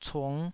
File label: "cung5", Taiwanese